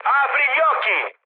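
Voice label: Italian player friendly fire mood med, speech; War Thunder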